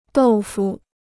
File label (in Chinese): "豆腐", Chinese